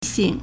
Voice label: "xing3", Chinese